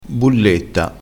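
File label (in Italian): "bulletta", dialettale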